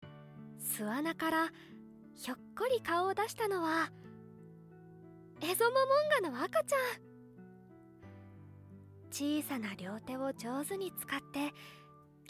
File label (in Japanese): ナレーション 動物ドキュメンタリー BGM on